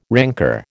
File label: "rancour", American